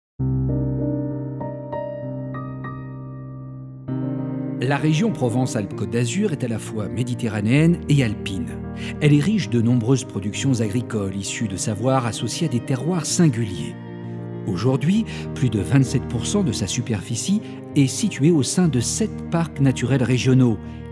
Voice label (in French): VOIX OFF INSTITUTIONNEL